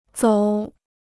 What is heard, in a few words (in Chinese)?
"走", Chinese